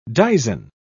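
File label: "dizen", British English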